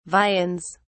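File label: "viands", British English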